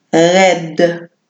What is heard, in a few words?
tense